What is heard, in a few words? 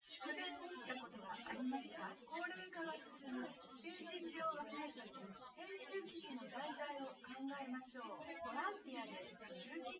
female synthconv 250ms 5cm